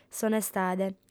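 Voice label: "s'onestade", lingua sarda, female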